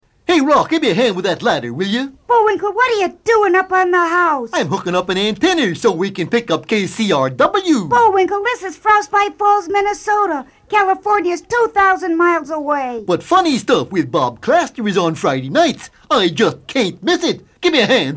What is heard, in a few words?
R&B Promo; a Funny Stuff Promo starring Rocky and Bullwinkle; radio shows